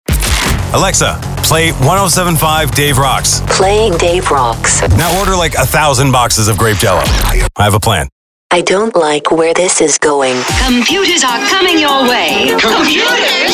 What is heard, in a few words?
Funny, en-AU